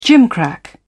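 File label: "gimcrack", British English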